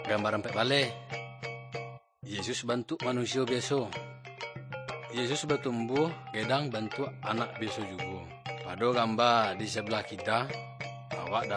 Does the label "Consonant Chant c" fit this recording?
No